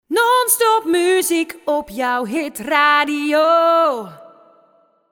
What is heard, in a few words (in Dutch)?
Non-Stop muziek op jouw Hitradio (zonder SFX); jingles